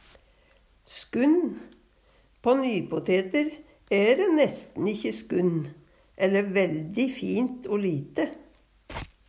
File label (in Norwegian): skunn, Numedalsmål; Substantiv inkjekjønn; Planteriket